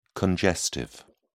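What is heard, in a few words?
"congestive", British English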